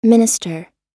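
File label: "minister", American English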